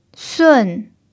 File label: "sun4", chinese